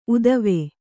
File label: "ಊತ್ವ", kannada